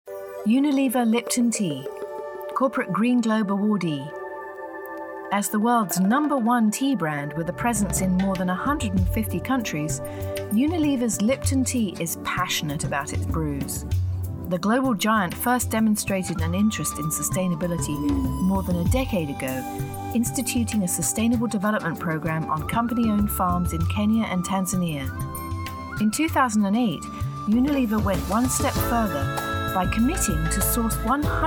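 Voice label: British Voice Female Narration, Measured, Educational; eLearning, Corporate, Documentary, International Voiceover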